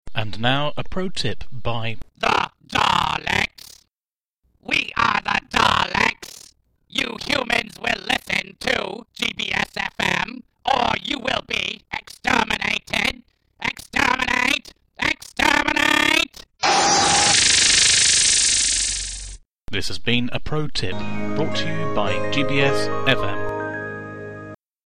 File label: dalek promo